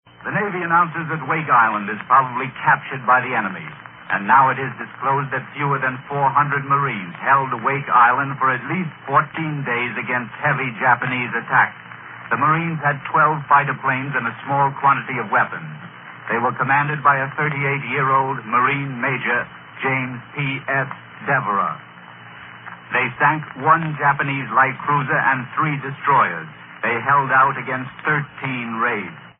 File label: radio news fall of wake island